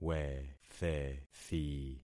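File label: "wethethi", concatenated syllables; Affixing experiment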